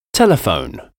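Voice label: "telephone", English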